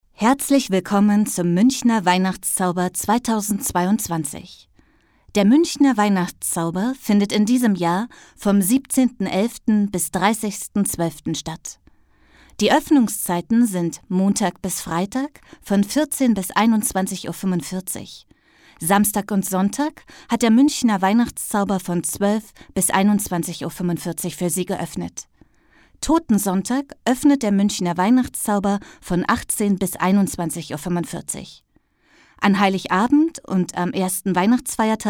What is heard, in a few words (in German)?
telefonansage; muenchener weihnachtszauber; casting stimmprobe